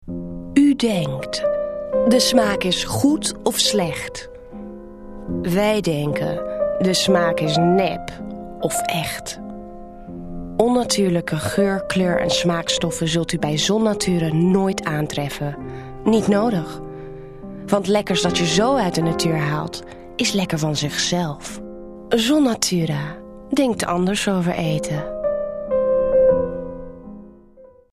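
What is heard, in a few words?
Dutch, european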